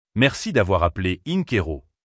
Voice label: welcome, phone; french